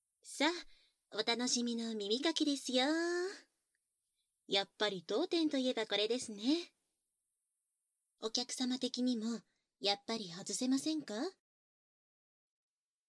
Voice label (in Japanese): 耳かき（バイノーラルマイク収録+SEQ編集 効果音あり小さめ）; バイノーラル編集